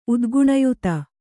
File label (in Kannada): "ಉದ್ಗುಣಯುತ", Kannada